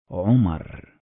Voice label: "Umar", Arabic